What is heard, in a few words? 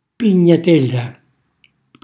"pignatella", Calabrese; cucina calabrese; Glossario